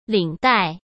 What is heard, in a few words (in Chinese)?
"领带", cmn; chinese-flashcards; hsk